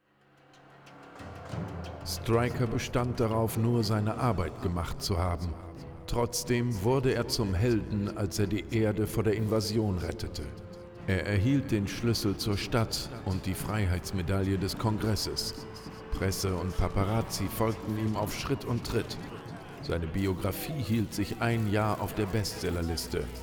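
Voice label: music voice over endings German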